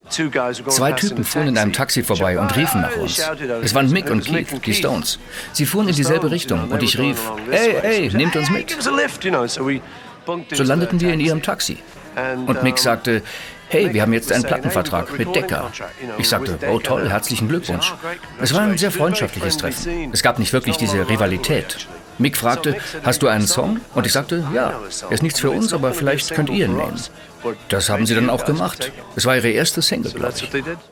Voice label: VoiceOver, maenner; arte

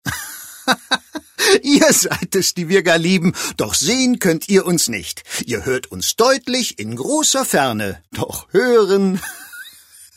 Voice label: froehlich, maenner

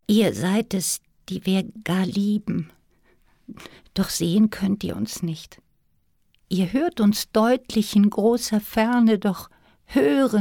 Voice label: Gedicht traurig; sprecher; frauen

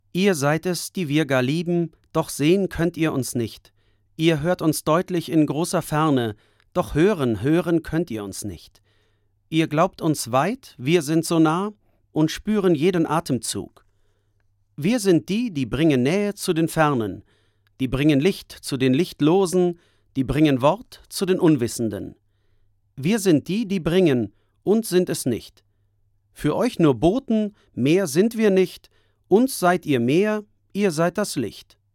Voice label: sachlich, maenner

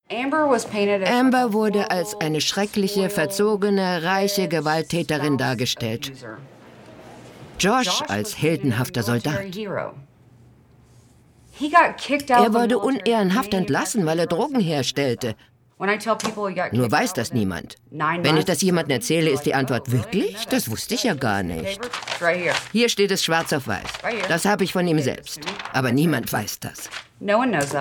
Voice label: Voice Over, frauen; sprecher; Bekenntnisse einer Mörderin

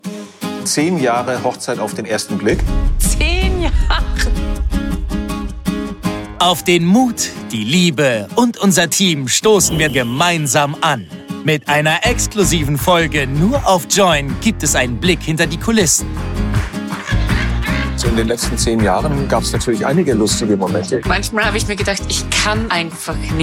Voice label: Trailer, maenner; Joyn; Hochzeit auf den ersten Blick

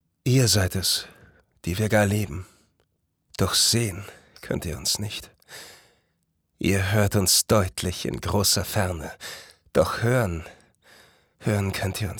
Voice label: poetisch, maenner

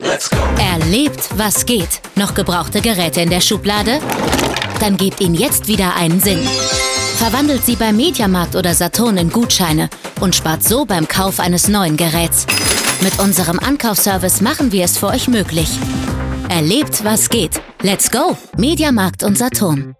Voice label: Spots Off; Ankaufservice

